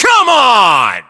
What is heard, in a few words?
US rsp cmon, voiceovers, localized english